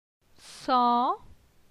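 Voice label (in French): "San", French; phonetique; la syllabe an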